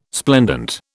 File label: "splendent", British